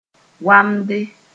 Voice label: "wamde", Mooré